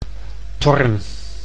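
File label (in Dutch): "Thorn", Dutch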